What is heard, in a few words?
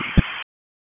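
Police Spec Ops Tac, radio; Raleigh; wake; p25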